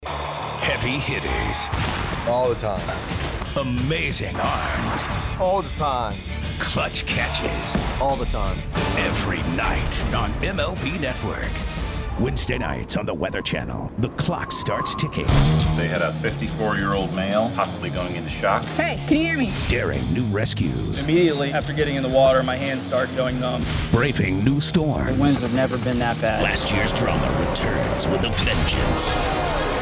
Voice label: promo men